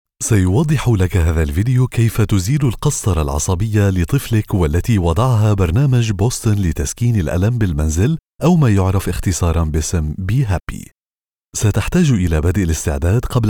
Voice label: arabic corporate videos